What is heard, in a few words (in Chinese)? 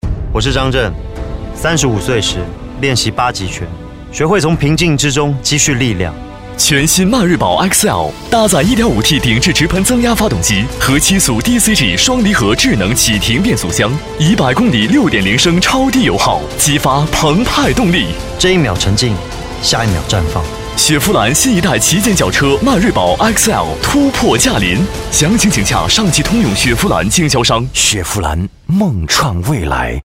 男, 汽车, 年轻有力; 迈锐宝汽车